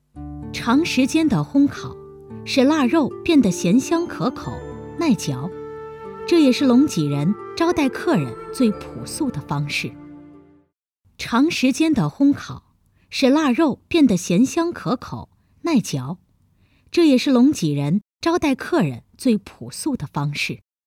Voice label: 记录片

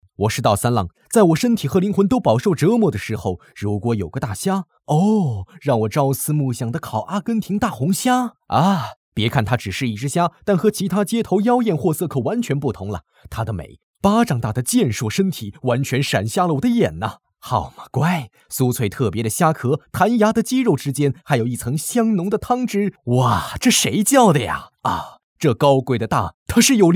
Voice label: 抖音 广告

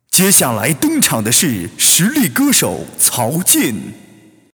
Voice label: 画外音歌手登场

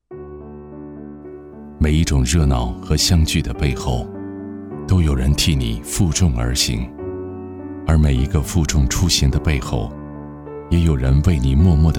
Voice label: 旁白

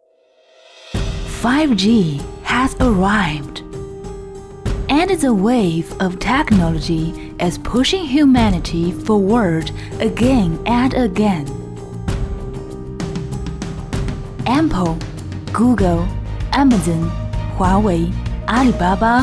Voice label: 英语宣传

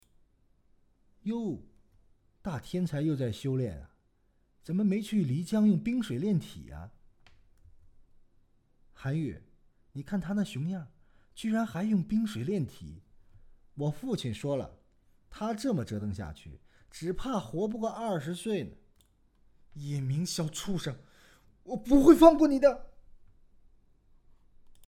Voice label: 男播; 武道至尊叶振雄

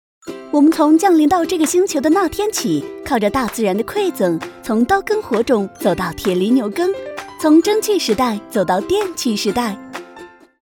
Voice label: 科普风

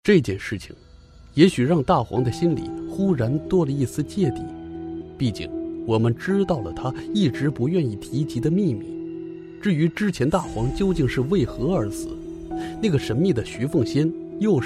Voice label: 悬疑代入

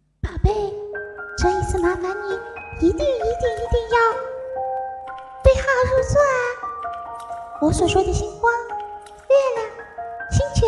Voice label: 萌哒哒

